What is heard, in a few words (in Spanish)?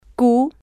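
"q", spanish, female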